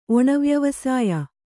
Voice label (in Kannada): "ಒಣವ್ಯವಸಾಯ", Kannada